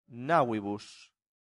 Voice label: "navibus", latin; santillana